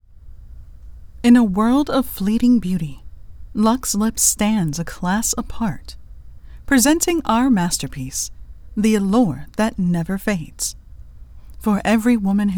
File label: Makeup Commercial